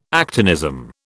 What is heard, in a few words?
"actinism", British